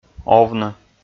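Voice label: "овны", Komi, male; kpv audio dict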